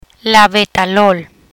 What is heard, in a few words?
"Labetalol", Spanish